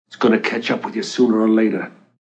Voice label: Gonna Catch Up, Male; Talking